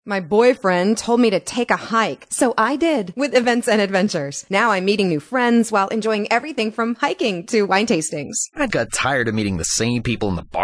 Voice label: radio commercials